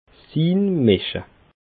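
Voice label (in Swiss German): "inmische", Alsatian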